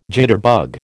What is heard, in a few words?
"jitterbug", American